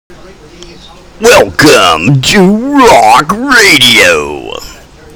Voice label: Voice Track

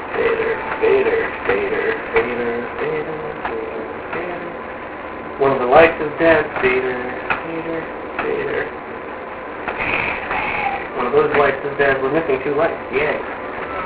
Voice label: Booth Voice, NCC Theatre

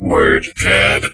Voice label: WordPad, computer voice

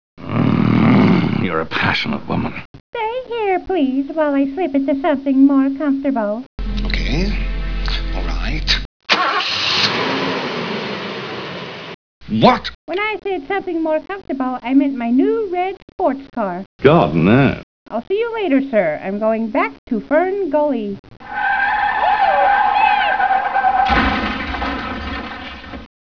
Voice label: old woman

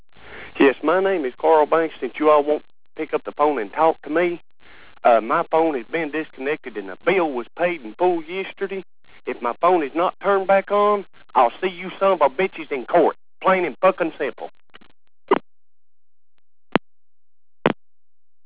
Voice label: voicemsg

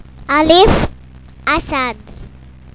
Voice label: "alif", Arabic

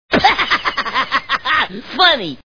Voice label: funny

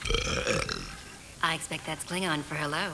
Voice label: klingon hello

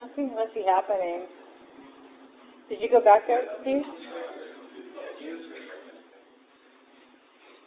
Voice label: voice distorted

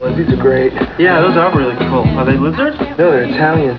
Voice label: italian; boogie nights

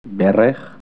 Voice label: "knee", hebrew sounds

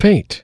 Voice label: "paint", computer voice

